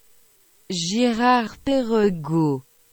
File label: "Girard Perregaux" Proninciation